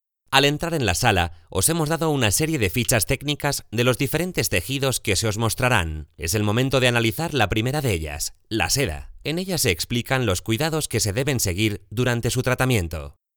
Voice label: e-learning Spanish

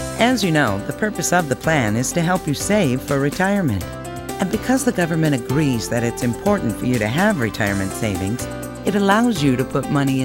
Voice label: Corporate Pay Taxes eLearning

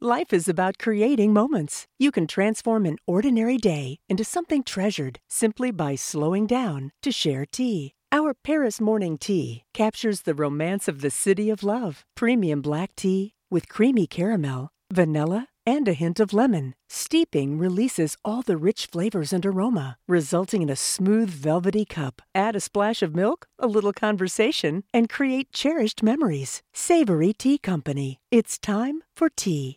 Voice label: DRY READ

